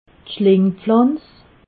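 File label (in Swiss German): "schlingpflanz", Haut-Rhin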